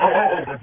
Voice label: car start, Lo-Fi Jibber Jabber